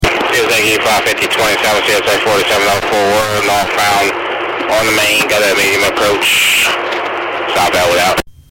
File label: medium approach, railfan; South Bellwood